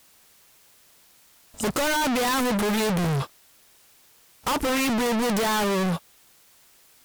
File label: female Igbo Amplitude Clip; Speech Quality Examples